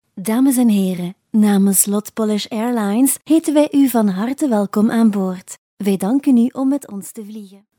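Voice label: Flemish, female, announcement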